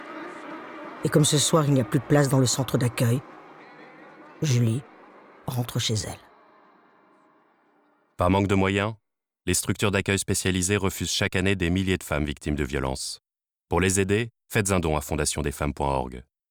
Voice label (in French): spot radio